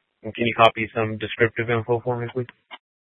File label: Sheriff, scanner P25